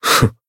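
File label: Voice healed, Japanese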